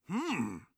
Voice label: inquisitive, orc voice; Orc Voice Pack